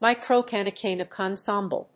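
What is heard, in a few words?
"Microcanonical ensemble", English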